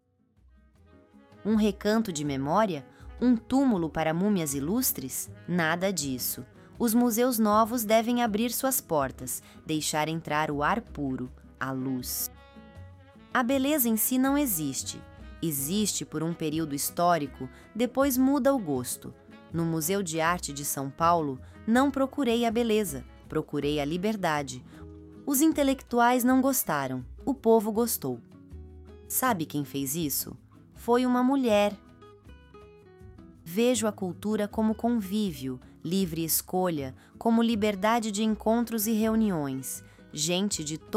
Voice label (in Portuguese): narracao; masp lina sesc